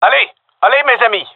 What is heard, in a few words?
round start, gendarmerie male; vo